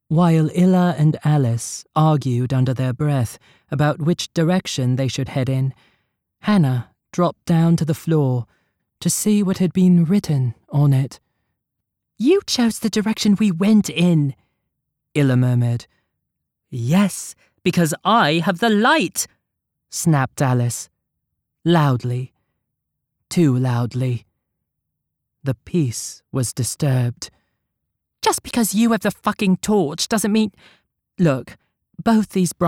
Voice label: 3rd person HORROR 3 women British dialect